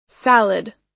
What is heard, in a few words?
"salad", us